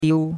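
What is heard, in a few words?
"diu1", Chinese; Cours-de-Chinois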